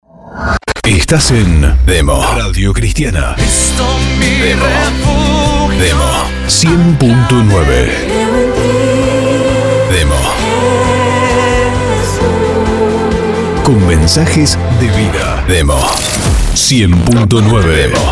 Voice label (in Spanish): PACK RADIOS CRISTIANAS